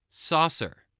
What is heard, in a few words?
"saucer", english spelling